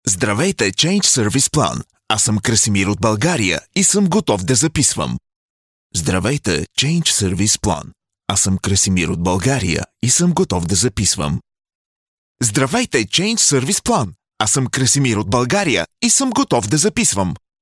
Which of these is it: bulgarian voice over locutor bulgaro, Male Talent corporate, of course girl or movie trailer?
bulgarian voice over locutor bulgaro